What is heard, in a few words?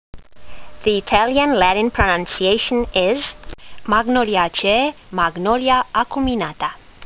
latin; Magnolia acuminata